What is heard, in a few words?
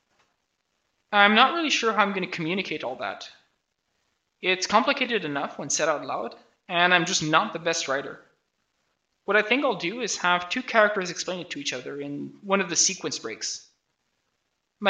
voice, narration